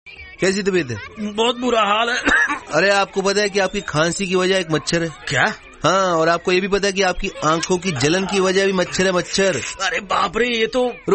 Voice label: Irfan Khan Style; GoodKnight